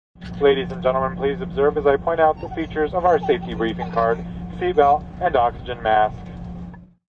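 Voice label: Steward Safety Instructions, Steward announcement regarding safety on plane; Stewardess Saftely Intructions; Airplane Sound Effects; Vehicles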